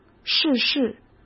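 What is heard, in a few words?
"逝世", Mandarin Chinese; chinese flashcards; hsk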